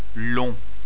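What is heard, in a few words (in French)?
"long", French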